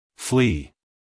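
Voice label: "flee", American English, male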